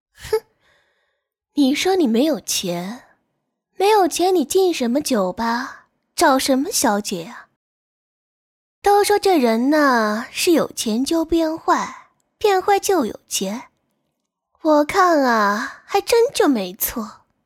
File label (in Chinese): 女声演绎嘲讽